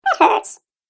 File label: Cartoon Game Voice That Hurts